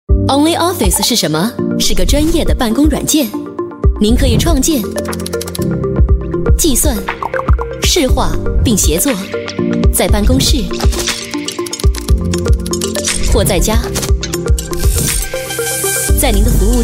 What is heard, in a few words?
chinese voice over female narrator